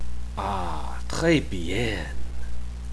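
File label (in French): "très bien", French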